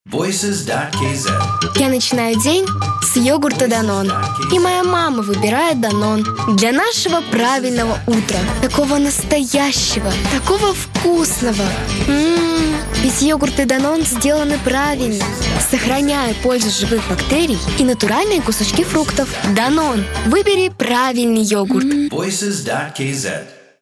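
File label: kid Russian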